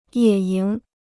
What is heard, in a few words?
"野营", Chinese